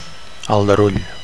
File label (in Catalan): "aldarull", Catalan; (m) commotion; din; racket; riot